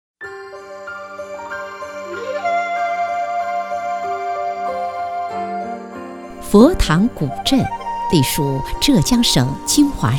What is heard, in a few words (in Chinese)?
女 纪录片; 义乌佛堂